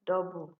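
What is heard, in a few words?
"double", Nigerian Pidgin, female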